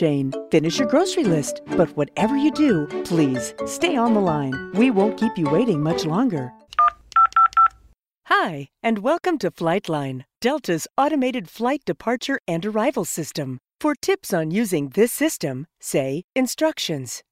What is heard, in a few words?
On Hold